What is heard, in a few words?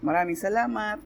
tagalog; POP UP Zagreb